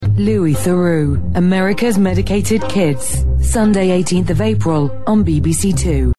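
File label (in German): UK English Fremdtake Neutral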